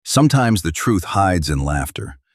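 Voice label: voice sample, Middle-aged man with a resonant and comforting tone. Great for narrations and advertisements.; Brian; Shot Studio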